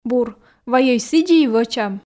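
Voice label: "бур вайӧй сідзи и вӧчам", Komi, female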